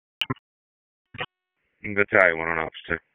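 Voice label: FIRE Operations, p25; FIRE OPS 2; wake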